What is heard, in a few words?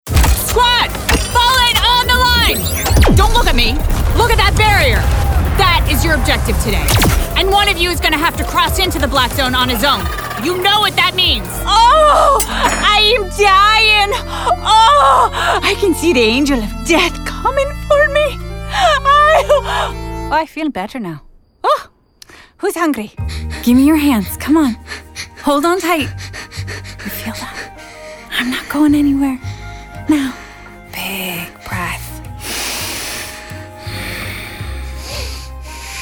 vo animation, voiceover acting